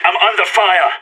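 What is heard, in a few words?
radio taking fire, voice; agents; sas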